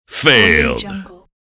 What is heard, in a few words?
announcer saying failed